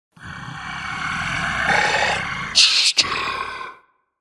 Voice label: The word 'Monster' spoken in very deep monster voice; Monsters (Sound Effects:Horror)